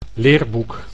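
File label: "leerboek", Dutch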